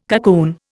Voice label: "cocoon", Indian